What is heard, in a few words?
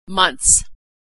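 "months", American English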